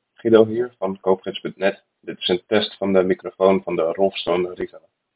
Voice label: rolfstone riva microfoon test